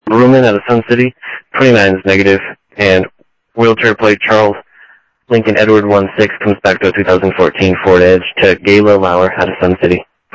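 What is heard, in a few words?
Sheriff West County, scanner audio trunk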